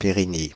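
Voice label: "Périgny", French, Paris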